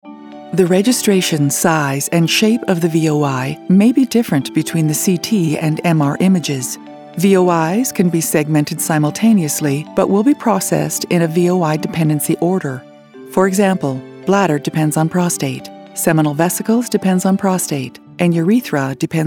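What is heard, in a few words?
Medical Instructional, female voice; E-LEARNING; informative; systematic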